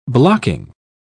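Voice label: "blocking", British English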